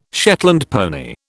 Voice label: "Shetland pony", British

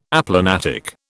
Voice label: "aplanatic", British

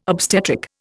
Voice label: "obstetric", Indian

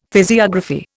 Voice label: "physiography", Indian; Dictionary

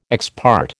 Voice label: "ex parte", American

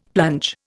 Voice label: "plunge", Indian; Dictionary

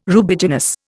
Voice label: "Rubiginous", Indian

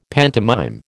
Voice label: "pantomime", American

